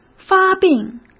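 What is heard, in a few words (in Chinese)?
"发病", Mandarin Chinese; hsk; chinese-flashcards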